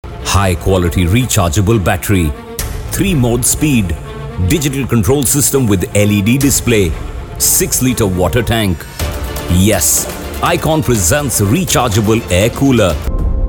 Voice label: hindi narration